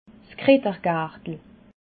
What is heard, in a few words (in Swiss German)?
"krittergartel", alsace